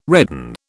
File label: "redden", British